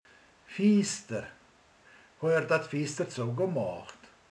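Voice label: "ablak", Hungarian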